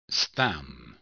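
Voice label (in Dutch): "staan", Dutch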